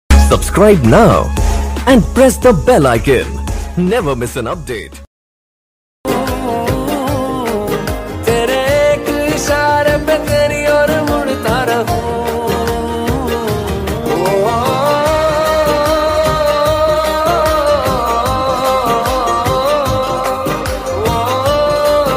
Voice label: bollywood dialogues; musically

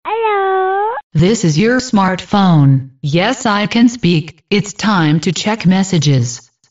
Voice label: smartphone lady ringtone

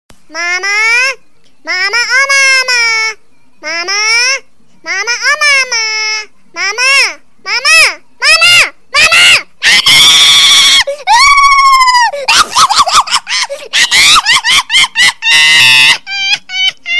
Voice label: calling mama funny ringtone

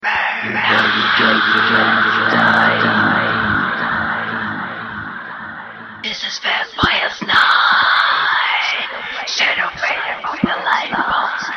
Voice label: scary vampire voice ringtone

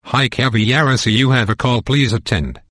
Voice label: you have a call cool tone ringtone

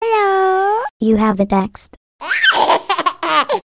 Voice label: hello you have a text funny sms tone

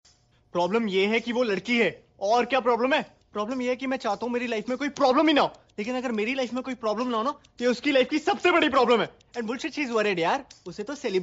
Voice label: punchnama dialogue ringtone

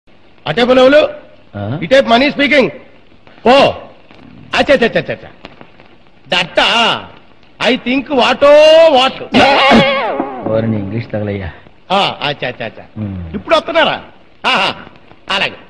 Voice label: telugu dialogue ringtone

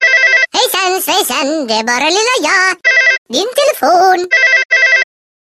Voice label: baby hejsan ringtone

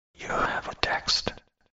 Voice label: whispering text ringtone

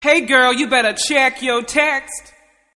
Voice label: girl check your text ringtone, sms

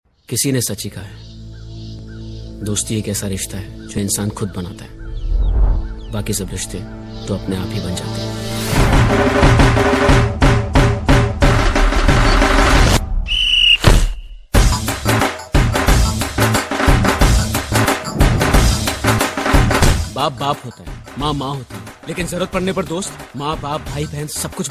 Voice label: dialogue ringtone; rangrezz

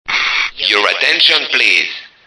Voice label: attention please sms ringtone

